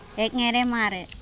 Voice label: "eknjeremare" talk, andamanese